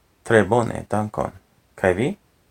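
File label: "tre bone dankon kaj vi", Esperanto pronunciation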